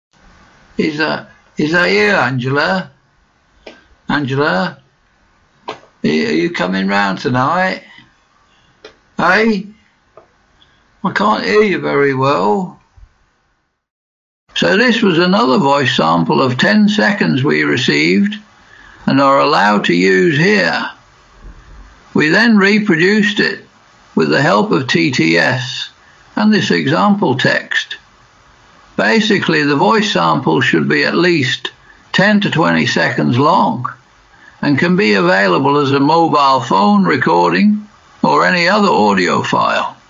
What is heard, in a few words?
Old Mann; Stimmbeispeil 2 TTS